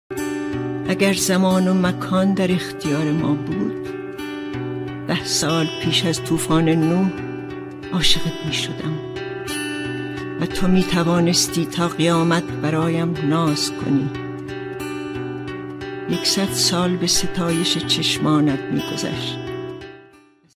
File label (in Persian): دکلمه; اگر زمان و مکان